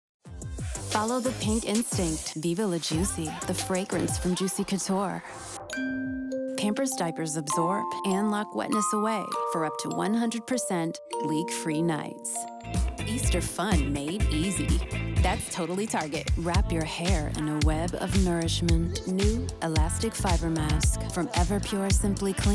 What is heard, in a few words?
commercial; Female Voice Talent; Commercial Demo